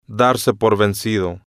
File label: "darse por vencido a", Spanish